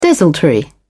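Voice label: "desultory", British English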